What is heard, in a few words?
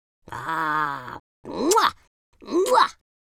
happy, speech